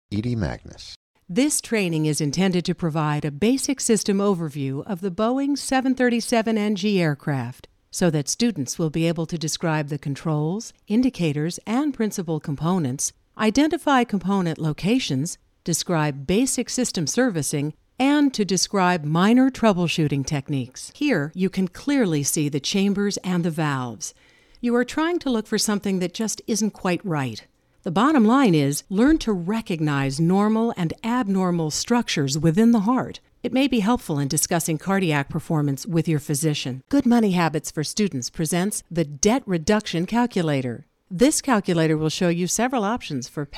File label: e-learning